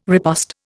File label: "riposte", Indian